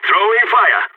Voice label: t molotov, balkan; vo

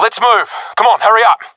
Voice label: request move, professional; vo; Counter Strike Model Pack; CS:GO

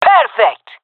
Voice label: cheer, jungle fem; vo; agents; Source Model Packs; Counter Strike Model Pack; CS2; jungle fem epic

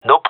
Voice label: negative, gendarmerie male

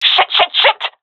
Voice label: scared, seal fem; vo; Counter Strike Model Pack; CS:GO

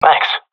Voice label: thankful; Source Model Packs; Counter Strike Model Pack; CS2

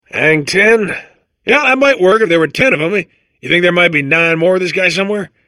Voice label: babble; Portal 2

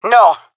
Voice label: negative, jungle fem epic; vo; agents; Source Model Packs; Counter Strike Model Pack; CS2

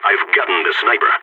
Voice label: sniper killed, balkan; vo

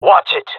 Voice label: taking fire friendly, jungle male; Counter Strike Model Pack; CS:GO

